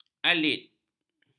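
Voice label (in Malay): "alit", Malay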